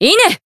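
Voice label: finish, Japanese; hinagiku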